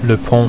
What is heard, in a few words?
"bridge", French